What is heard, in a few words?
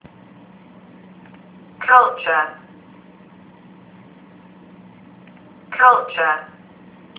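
culture, English; peace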